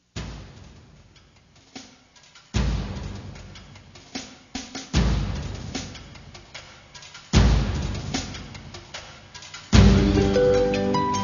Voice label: Político pedindo voto

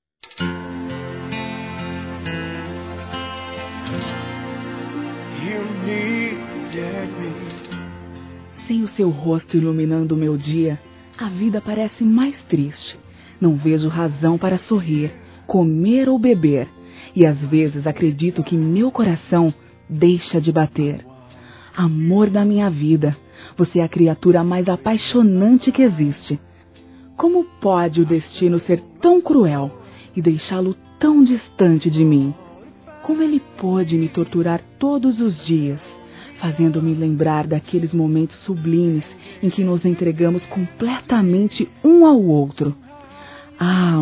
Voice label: SAUDADE NAMORADO, Telemensagens Sensuais fem

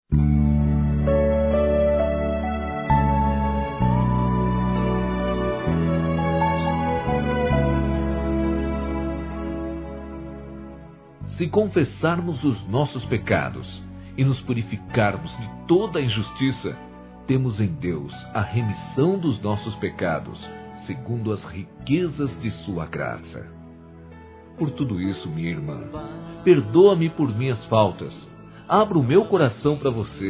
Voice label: PERDÃO AMIGA M, Telemensagens Gospel masc

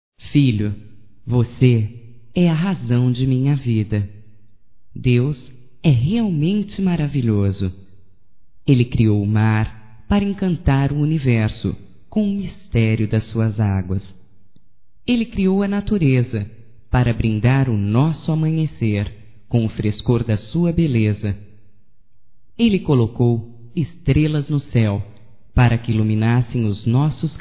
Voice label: FILHA, fem; Amizades; Telemensagens 2017

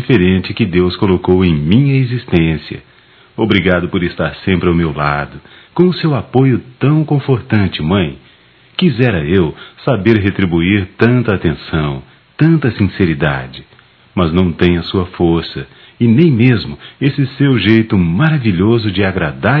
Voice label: filha para mãe, telemensagens, Dia das Mães; Telemensagens 2017

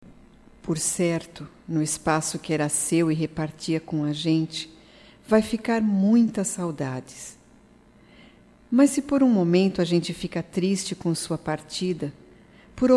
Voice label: DESPEDIDA (PARA QUEM VAI) F, Telemensagens

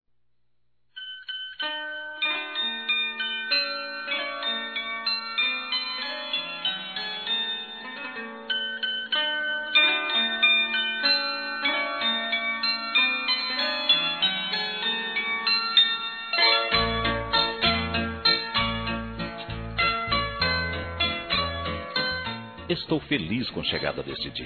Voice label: NATAL NEUTRO M

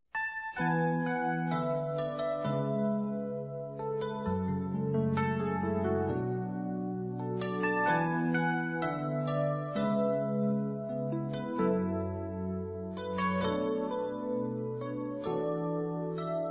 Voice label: Aniver. Romantico feminino; Aniversários

